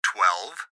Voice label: Gps Voice, Male; Twelve; Laptop Speaker Adult Male; Recorded Voice; Blastwave

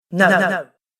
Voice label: Female Voice Says No With Delay; recorded voice; Recorded Voice Sound Effects

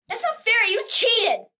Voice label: Not Fair You Cheat, 1 boy, 10 yrs; Talking Sound Effects

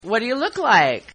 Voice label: Guy Says Whaddaya Look Like; Partners In Rhyme

